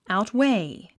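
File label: "outweigh", English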